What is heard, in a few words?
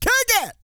COUNT, Vocal Planet, R&B & Dance, COUNTING; ILIO